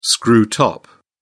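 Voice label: "screw top", British English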